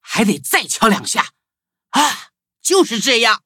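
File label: upgrade, Traditional Chinese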